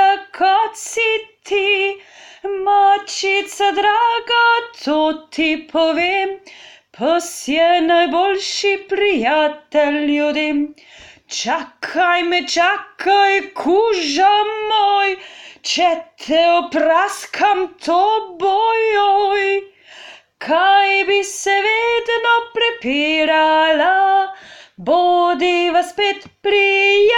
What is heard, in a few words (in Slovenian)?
MAČKE IN PSI glas